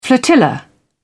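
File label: "flotilla", British English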